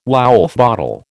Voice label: "Woulfe bottle", American